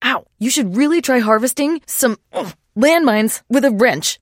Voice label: pain respond; 商人杰恩（七日杀）